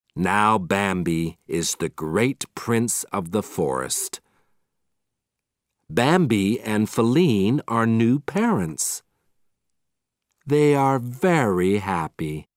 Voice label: Audio British English